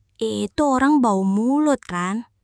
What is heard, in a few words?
indonesian phrases